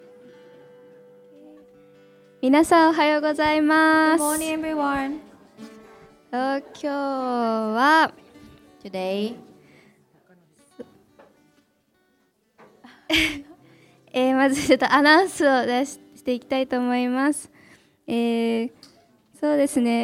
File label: announcement; sunday message 2019